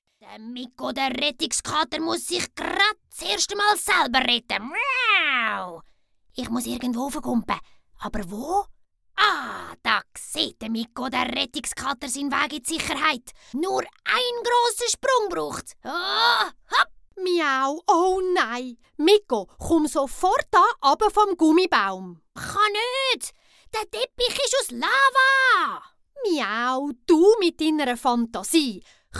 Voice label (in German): Hoerprobe, Sprecherin